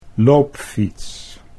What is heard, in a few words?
"loopfiets", Dutch